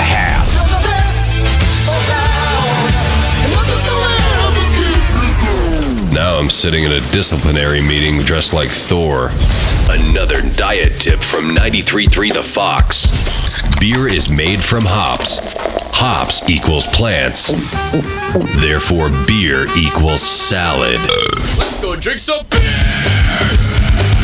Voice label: ROCK VO

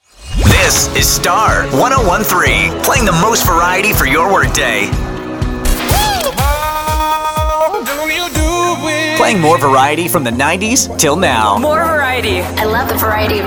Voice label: Hot AC Image VO

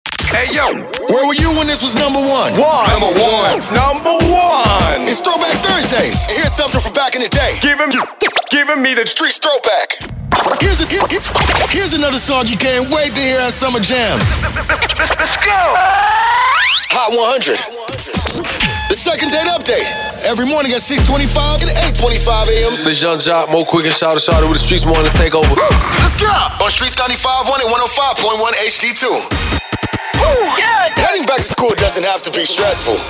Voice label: HIP HOP

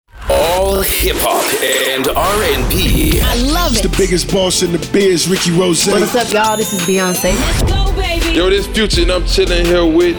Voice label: Urban Uptempo

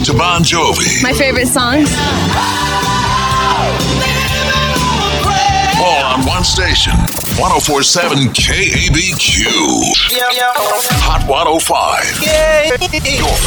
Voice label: CHR CLASSIC HITS

